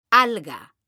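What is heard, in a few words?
"alga", Spanish, female; mekanta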